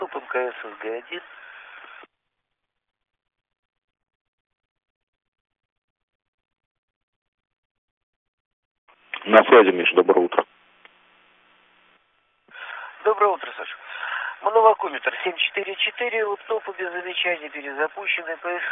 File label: Space to Ground; Expedition 39